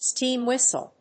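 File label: "steam whistle", English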